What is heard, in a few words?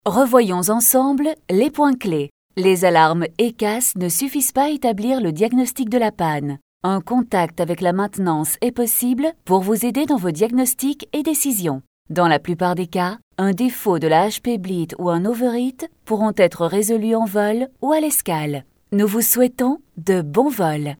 French